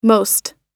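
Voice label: "most", American English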